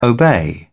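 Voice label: "obey", British English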